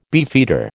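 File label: "beefeater", American English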